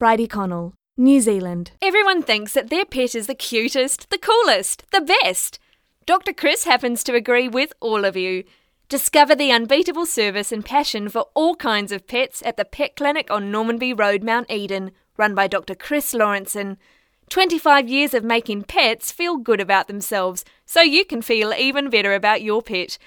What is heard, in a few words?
Voice Demo NZ Accent